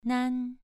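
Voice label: "nan", Mandarin Chinese syllable, second tone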